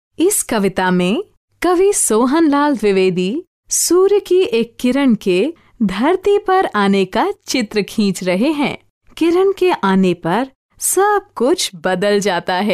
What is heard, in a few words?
hindi female voiceover locutora india; locutora hindú